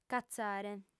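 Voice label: "catzare", female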